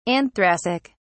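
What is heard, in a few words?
"anthracic", British English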